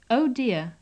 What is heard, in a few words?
"oh dear", English